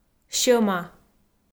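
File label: "сьӧма", Komi, female; kpv audio dict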